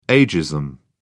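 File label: "agism", British English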